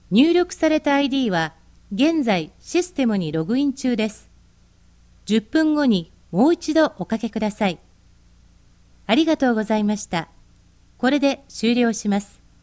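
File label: Japanese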